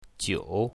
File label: "jiu3", chinese, mandarin